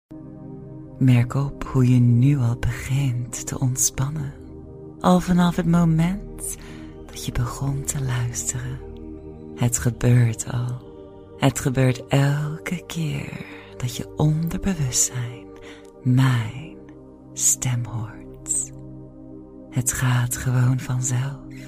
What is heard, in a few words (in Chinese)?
荷兰语女声